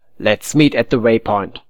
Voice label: "meet", voice